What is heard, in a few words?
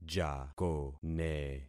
"jakone", concat syllables; Affixing experiment